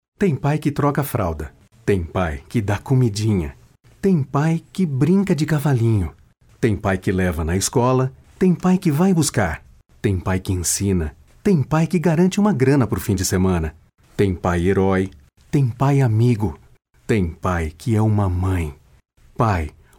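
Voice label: Brazilian narration; portugisisk-brasil, male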